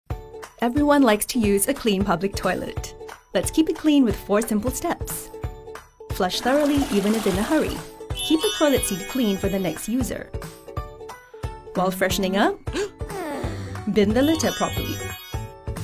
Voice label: english international announcements, singaporean english voice actors; Una actriz de voz cálida, amigable y versátil con atractivo global.; Anuncios